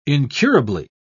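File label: "incurably", English, British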